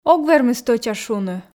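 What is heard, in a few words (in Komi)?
ог вермы стӧча шуны, Komi, female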